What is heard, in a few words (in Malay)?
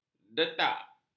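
"detak", Malay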